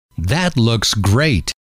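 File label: that Looks Great, male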